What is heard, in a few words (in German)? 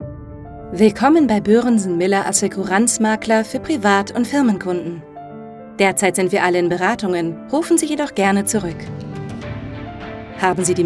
Telefonansage serioes